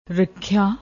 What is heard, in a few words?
"rakkha", Punjabi